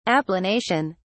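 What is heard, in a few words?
"applanation", British English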